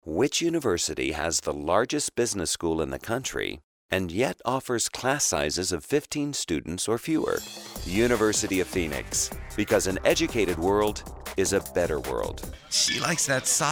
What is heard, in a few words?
Commercial